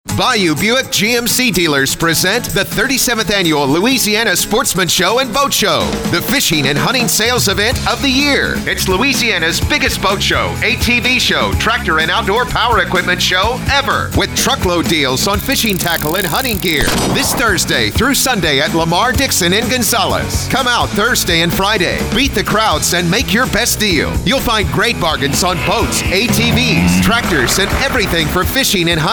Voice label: radio spot